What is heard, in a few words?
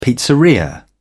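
"pizzeria", British English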